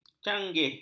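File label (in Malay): "canggih", Malay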